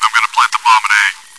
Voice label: chitchat, bot, voice commands; svencoop